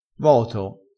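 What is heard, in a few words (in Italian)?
"voto", dialetto crespinese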